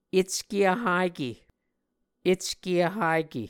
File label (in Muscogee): "ecke vhake", Muscogee; Mvskoke Words with Voicing Consonants; A Dictionary of Creek/Muskogee; SIL International